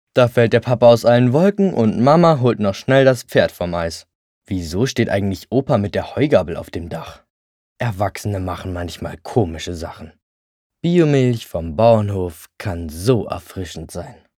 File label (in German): Spot, jungen; Biomilch